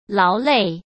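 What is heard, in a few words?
"劳累", Mandarin Chinese; chinese-flashcards; hsk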